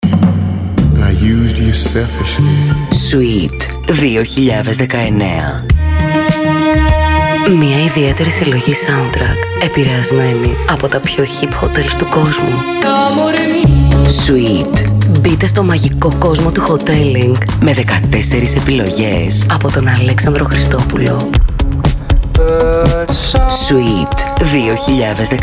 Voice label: RADIOSPOT; SUITE2019